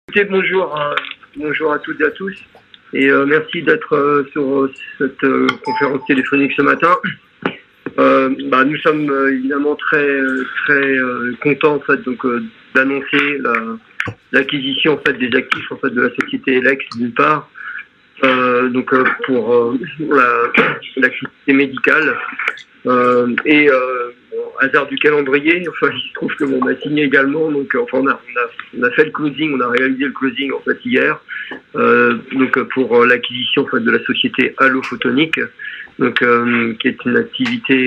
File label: conference call; lumibird